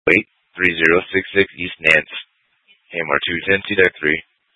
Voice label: FD Dpts VHF 156.240 Patch; TOPAZ Rgnl Wireless Co-op (TRWC)